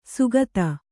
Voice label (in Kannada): "ಸುಗತ", Kannada